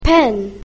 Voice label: pen, english basic sounds; grade1

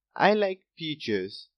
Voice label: peach like, boy, english; grade1; what do you like

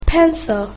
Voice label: pencil, english basic sounds; grade1

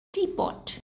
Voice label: "teapot", English; sun and light

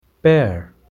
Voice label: "Pear", English, boy

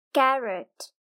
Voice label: "Carrot", girl; grade1; i likee to play

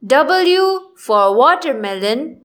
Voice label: w watermelon, English; grade1; identifying letters third

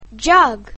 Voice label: "jug", english; grade1; basic sounds 1

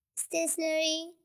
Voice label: "stationary", en

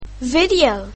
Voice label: "video", english; grade1; basic sounds 1

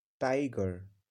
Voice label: "tiger", en

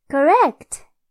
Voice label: correct, en; grade1; math; time

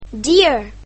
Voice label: "deer", English; grade1; basic sounds 1

